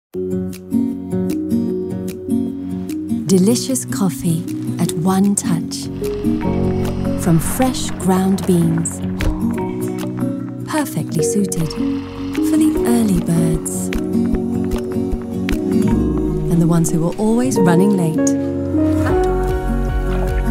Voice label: Philips Series 5000 full automatic espresso machine Lifestyle video, en-GB